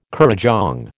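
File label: "currajong", American English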